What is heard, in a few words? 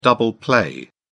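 "double play", British English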